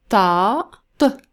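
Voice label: "taa", Arabic letter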